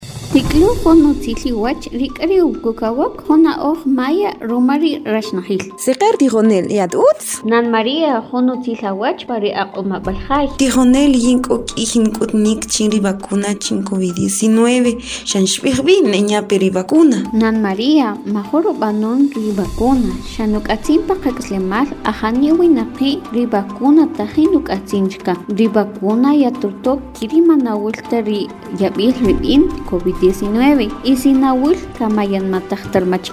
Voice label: SPOT KAQCHIKEL